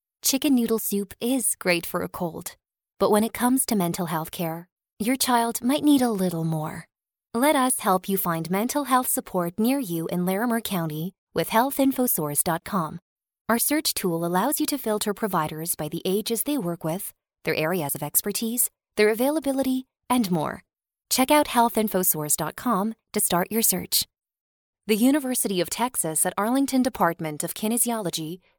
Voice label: female canadian voice over narrative